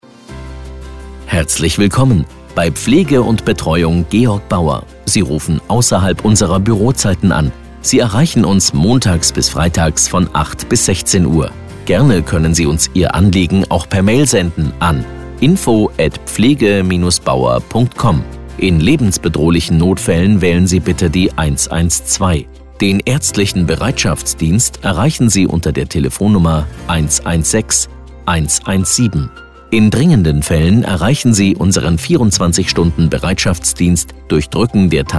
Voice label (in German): Ansage; Telefonansage Pflegedienst – Sozialbereich